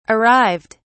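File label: "arrived", British English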